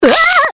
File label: evil pain; CAC ASSETS